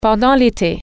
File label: "during summer", French